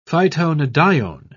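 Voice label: "phytonadione", British English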